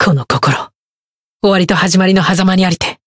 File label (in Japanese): chant jp; clarte